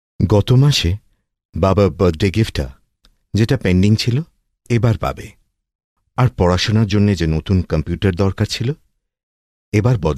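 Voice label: Bengali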